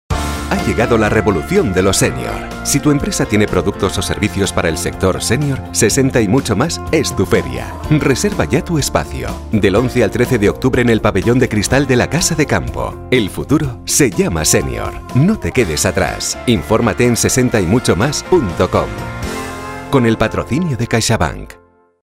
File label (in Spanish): Masculino; Sonoarte